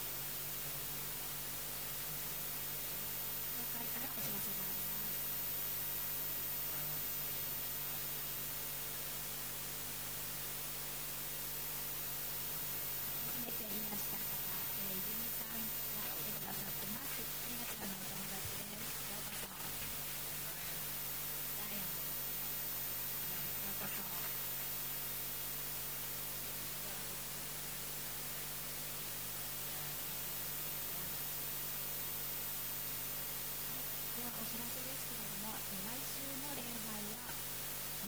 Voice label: announcement; sunday message 2022